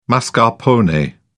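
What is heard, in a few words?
"mascarpone", British English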